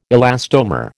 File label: "elastomer", American